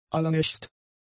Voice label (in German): "allernächst", German; adjectives